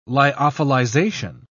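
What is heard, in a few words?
"lyophilization", English, British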